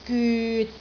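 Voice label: "gyut6", Cantonese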